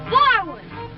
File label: "wow" girl; ooh ahh